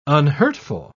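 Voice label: "unhurtful", British English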